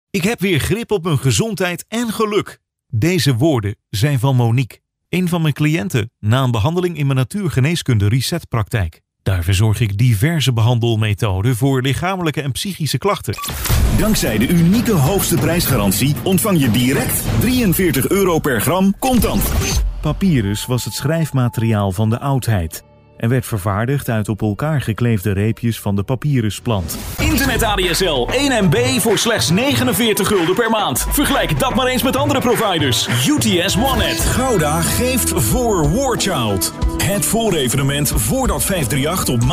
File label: dutch male showreel overview